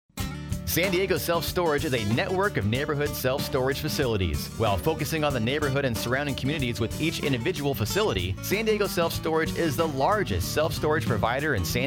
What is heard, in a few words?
Radio Ad; Press Releases; san diego storage news